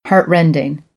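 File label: "heartrending", American English; AmericanBritish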